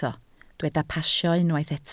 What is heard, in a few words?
"pasio", Welsh